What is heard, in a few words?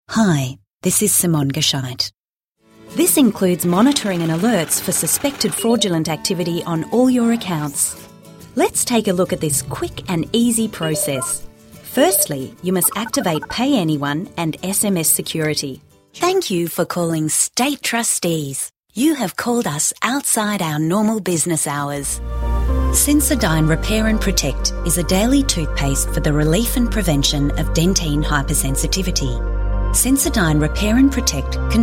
corporate